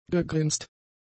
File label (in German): "gegrinst", german; adjectives